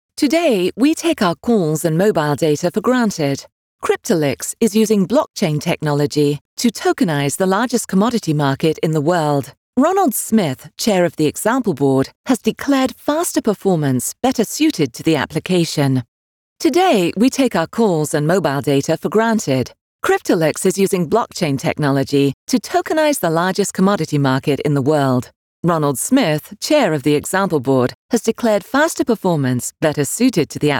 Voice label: british mid atlantic us accents